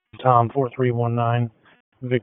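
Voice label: Wake Forest Police Dispatch, p25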